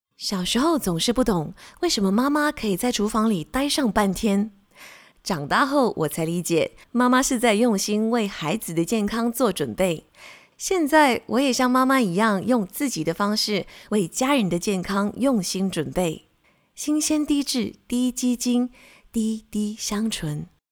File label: Soft VO